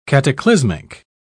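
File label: "cataclysmic", British English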